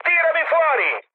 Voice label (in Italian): bailout, mood med, speech it; War Thunder